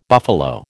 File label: "buffalo", American